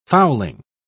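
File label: "fowling", English, British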